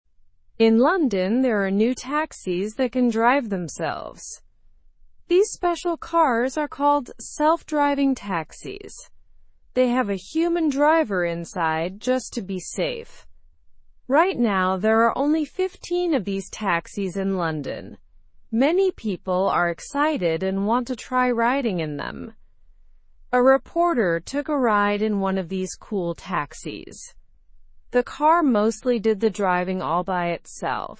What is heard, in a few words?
learn english through news beginner slow; ride in uber s new self driving taxis